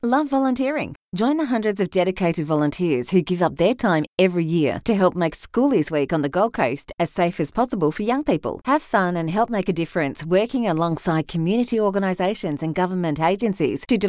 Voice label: middle age woman english, Australian female, Natural, Conversational, Engaging Female Voice; Safer Schoolies Response